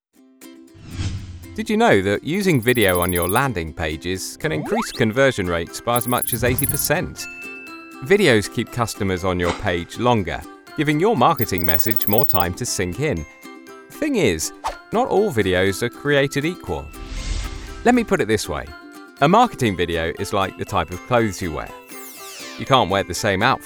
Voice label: EXPLAINER REEL